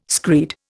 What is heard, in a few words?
"screed", Indian